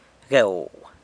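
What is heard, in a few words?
"gueu", San Lucas Quiaviní Zapotec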